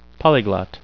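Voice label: "polyglot", English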